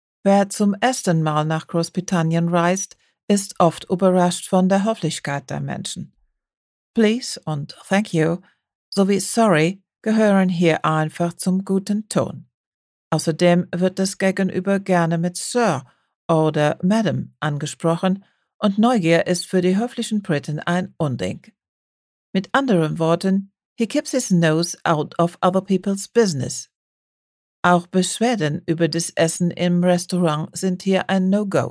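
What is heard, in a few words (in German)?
Stimmprobe Akzent englisch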